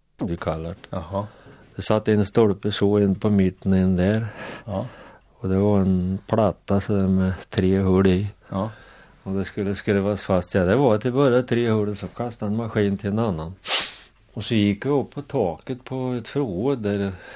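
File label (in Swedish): Tåby Östergötland, dialekter; Folkminnen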